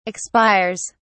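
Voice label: "expires", English, British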